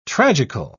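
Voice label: "tragical", British English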